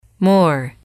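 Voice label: "more", English, female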